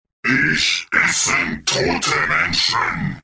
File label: classic ghoul; warcraft